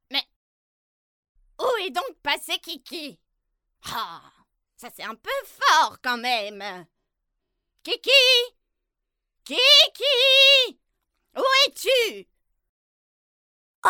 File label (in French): Voix off Creation de personnages